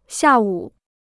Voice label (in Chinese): "下午", Chinese